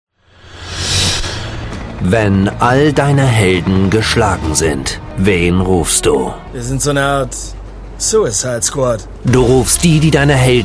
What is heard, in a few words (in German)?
Sprecher Trailer; Suicide Squad